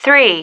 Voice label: "three", female

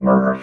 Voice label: "mirv", robot vox

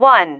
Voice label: "one", female

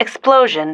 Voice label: "explosion", female

